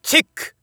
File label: Narration POKER Check